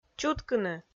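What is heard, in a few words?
"чуткыны", Komi, female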